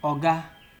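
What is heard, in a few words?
"oga", Nigerian Pidgin, male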